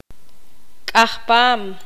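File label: "delgado de objeto cilindrico", Poqomam, female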